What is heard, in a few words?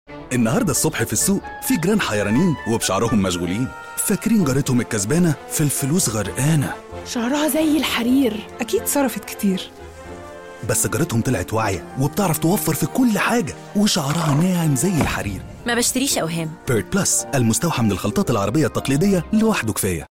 arabic commercial